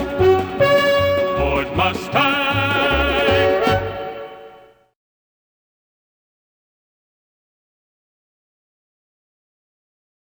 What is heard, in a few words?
Ford Mustang - Western Spot